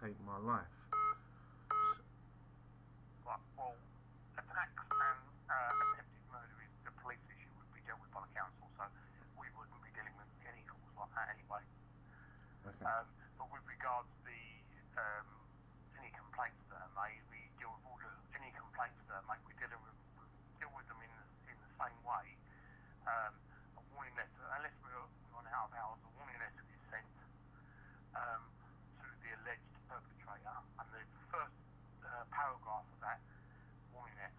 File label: Noise Complaint, Tel Call; IMF Lemmy Tel Call